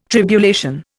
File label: "tribulation", Indian